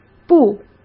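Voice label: "不", Mandarin Chinese; hsk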